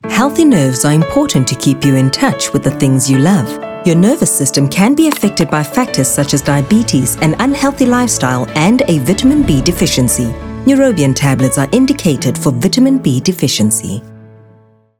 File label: English Medical